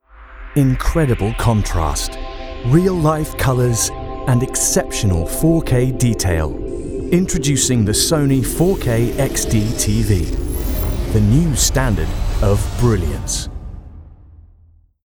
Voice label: commercial; Sony